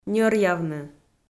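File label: "ньӧръявны", Komi, female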